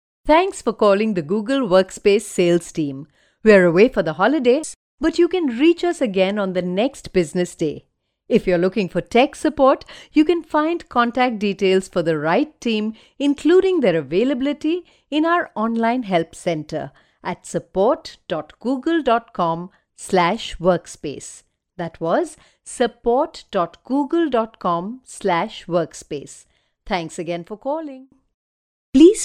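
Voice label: English Indian Female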